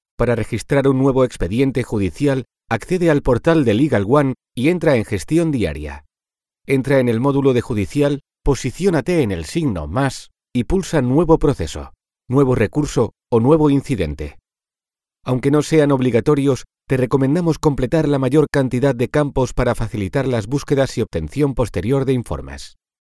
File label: spanish e-learning